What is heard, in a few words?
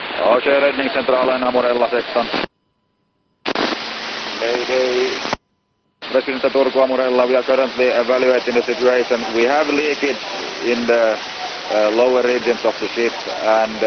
mayday call; amorella